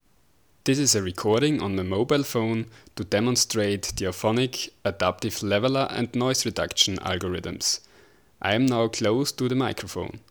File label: unprocessed; auphonic demo